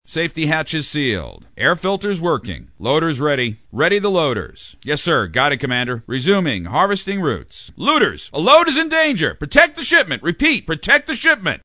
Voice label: Command Control, Involved & Emotional; animation